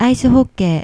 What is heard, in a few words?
"aisuhokkee", Japanese